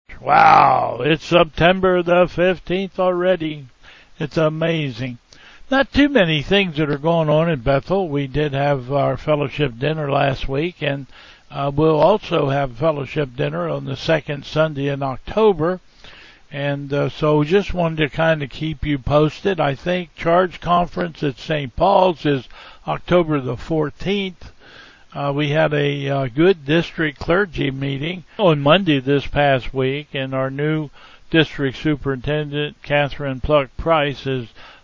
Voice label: Welcome and Announcements